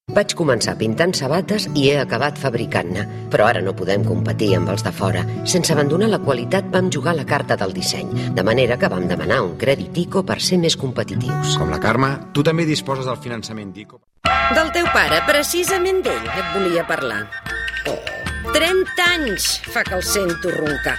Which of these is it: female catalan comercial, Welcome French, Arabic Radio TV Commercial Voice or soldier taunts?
female catalan comercial